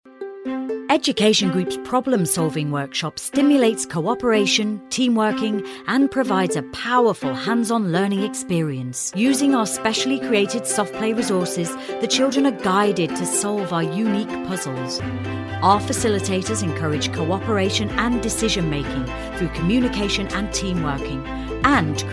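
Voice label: English British, female, corporate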